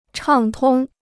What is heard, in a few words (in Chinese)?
"畅通", Chinese